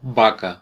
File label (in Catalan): "cow", voices ca words; gcompris